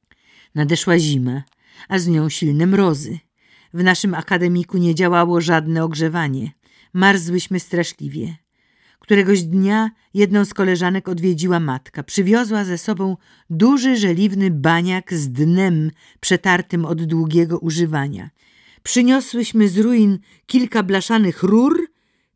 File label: audiobook; cala radosc zycia